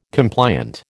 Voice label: "compliant", American